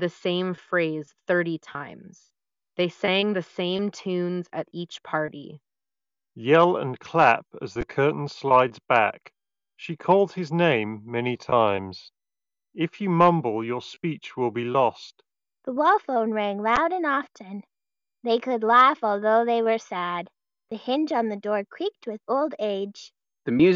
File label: Ideal microphone sample; Anker Soundcore Life Q20